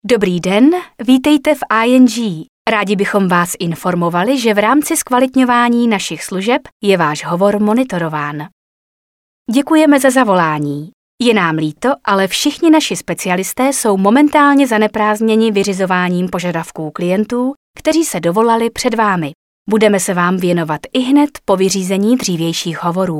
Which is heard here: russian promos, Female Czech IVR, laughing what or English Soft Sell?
Female Czech IVR